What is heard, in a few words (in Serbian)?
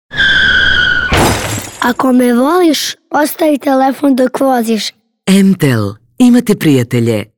Радио џингл